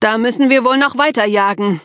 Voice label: defeat, de; cookie run